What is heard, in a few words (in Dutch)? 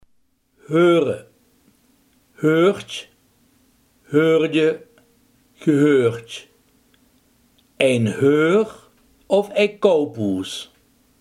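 huren, Limburgse gesproken woordenboeken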